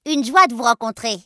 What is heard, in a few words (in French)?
une joie de vous rencontrer, gnome male; PhoenixCraft-1.16.5; PhoenixNPCPack; minecraft